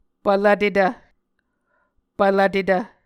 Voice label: "pvlvtetv", Muscogee

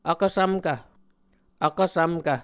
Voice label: "Vkvsvmkv", Muscogee

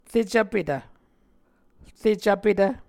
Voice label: "recvpetv", Muscogee

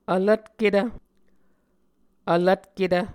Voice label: "vlvtketv", muscogee

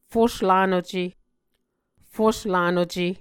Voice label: "fuslanuce", Muscogee

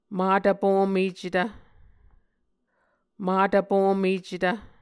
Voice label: "matvpomecetv", Muscogee

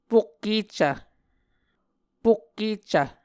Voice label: "pokkeccv", Muscogee; Mvskoke Words with Repeated Consonants; A Dictionary of Creek/Muskogee; SIL International; Onvkv Ohhvyayices

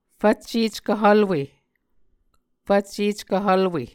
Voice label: "fvcceckvhvlwe", Muscogee; Mvskoke Words with Repeated Consonants; A Dictionary of Creek/Muskogee; SIL International

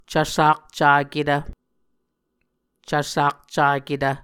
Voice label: "cvsakcaketv", Muscogee; Mvskoke Words with Voicing Consonants; A Dictionary of Creek/Muskogee; SIL International; Onvkv Ohhvyayices

